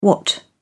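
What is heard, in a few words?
"what", British English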